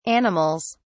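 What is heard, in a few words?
"animals", British English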